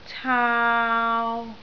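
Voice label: "caau3", Cantonese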